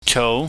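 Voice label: "chou", Cantonese, mid rising tone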